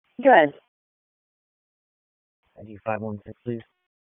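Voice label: Police Southeast District Dispatch; Raleigh; wake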